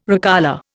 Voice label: "recolour", Indian